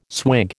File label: "swig", American